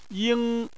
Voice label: "ying1", Chinese

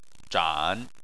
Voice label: "zhan3", Chinese

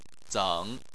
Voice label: "zeng3", Chinese

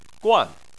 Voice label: "guan4", Chinese Phonetics

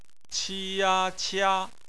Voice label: "qia", Chinese phonetics

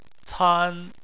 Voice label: "can1", chinese

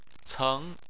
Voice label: "ceng2", chinese

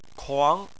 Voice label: "kuang2", Chinese

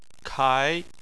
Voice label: "kai2", Chinese

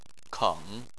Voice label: "keng3", Chinese

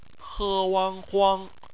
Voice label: "huang", Chinese; phonetics; bpmf

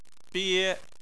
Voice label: "bie1", chinese

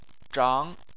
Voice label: "zhang2", Chinese

